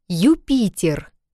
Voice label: Ю in russo

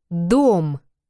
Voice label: D in russo

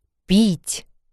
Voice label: Ь in russo

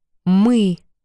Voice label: Ы in russo

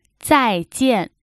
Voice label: arrivederci in cinese

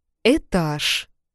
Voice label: "Э" in russo

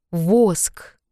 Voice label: B in russo

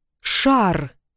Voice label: Suono sc in russo

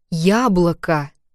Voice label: Я in russo

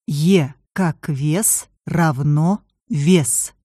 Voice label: Ee in russo

